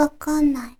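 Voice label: "wakannnai", Japanese, girl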